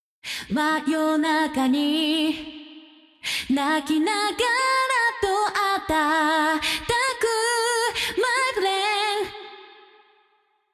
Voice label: vocal double delay rev on